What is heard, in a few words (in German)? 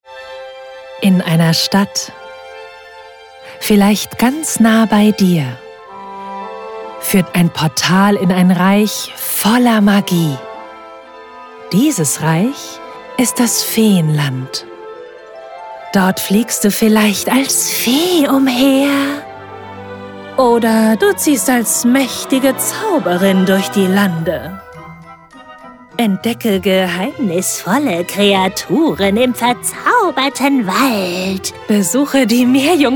Hoerbuch Hoerspiel; Feenland